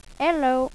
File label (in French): "hello", Irlande; Dublin